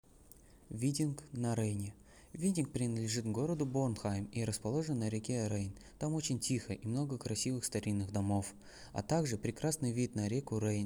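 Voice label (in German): Russisch; Widdig am Rhein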